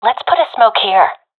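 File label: request smoke, professional female; vo; Counter Strike Model Pack; CS:GO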